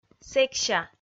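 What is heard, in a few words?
"сэкся", Komi, female; kpv audio dict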